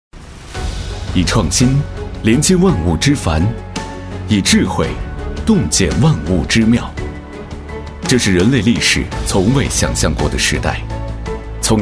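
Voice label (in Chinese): 宣传片洋气坚定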